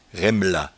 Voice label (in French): "raimelat", patois jura